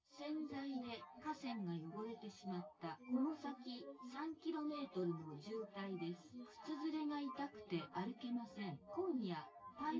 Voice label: female synthconv